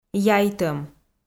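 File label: "яйтӧм", Komi, female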